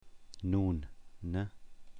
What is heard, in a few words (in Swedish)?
"nun", persiska